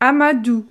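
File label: "amadou", French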